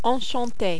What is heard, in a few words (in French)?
"enchante", French